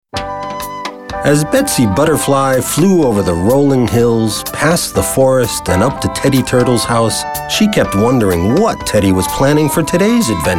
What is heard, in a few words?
Narrative